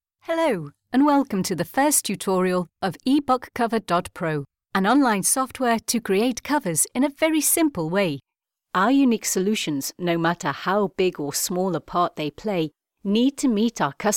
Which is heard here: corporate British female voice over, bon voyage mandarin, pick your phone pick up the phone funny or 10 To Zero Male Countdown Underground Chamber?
corporate British female voice over